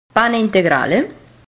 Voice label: "pane integrale", italian